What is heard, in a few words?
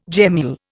"gemmule", Indian